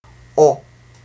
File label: "o", Tigall